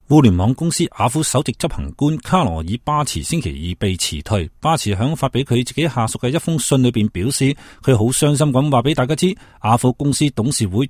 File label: Yahoo, cantonese